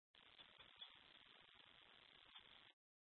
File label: Mutual Aid-Intersystem Interop B, scanner